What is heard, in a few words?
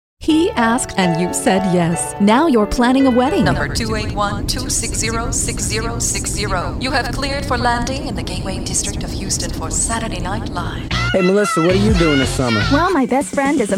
Commercial